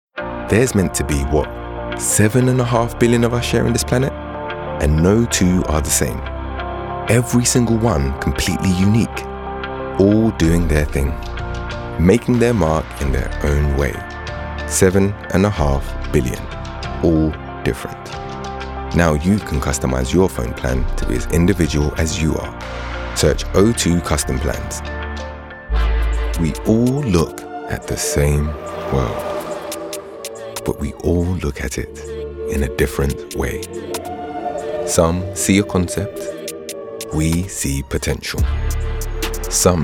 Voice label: Commercial

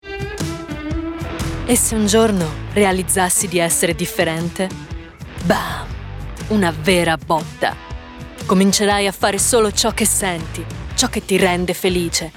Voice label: Italian Reel

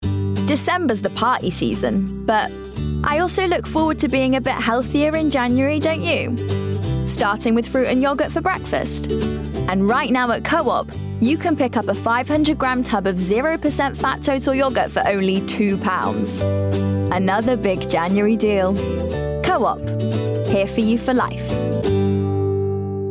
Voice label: Commercial

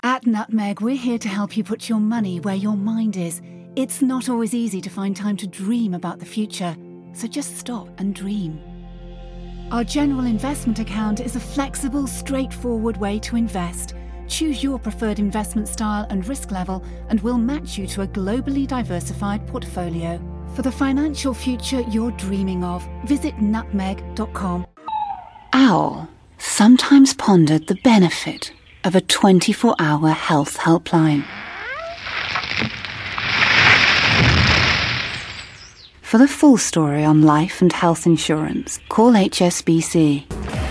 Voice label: Commercial

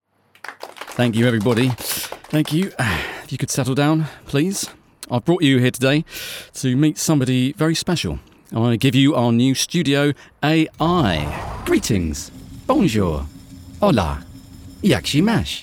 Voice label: Character Reel

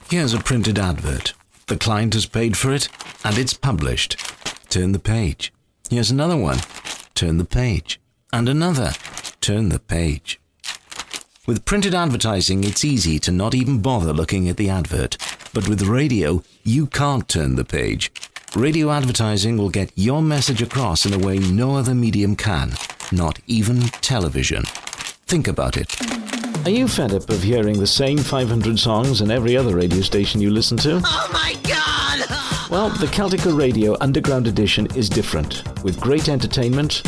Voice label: Commercial

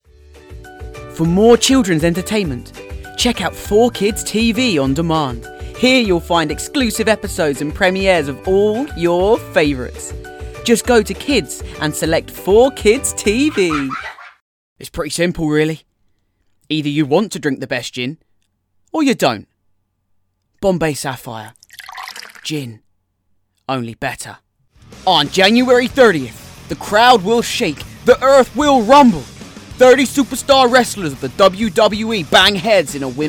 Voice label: Commercial

